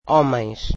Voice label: "men", Portuguese

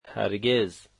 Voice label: never, dari

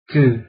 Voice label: alphabet q, afrikaans

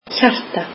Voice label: icelandic heart

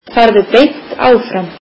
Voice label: icelandic Go straight

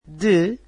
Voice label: "from", Portuguese

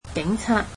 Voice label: "police", Cantonese

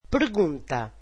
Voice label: portuguese question

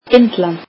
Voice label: icelandic India

